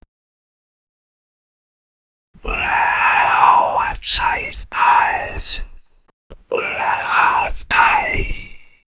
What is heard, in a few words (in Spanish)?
voz susurrada de hombre e, angry